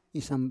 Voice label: "isam", Roon